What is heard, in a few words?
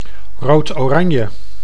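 "roodoranje", Dutch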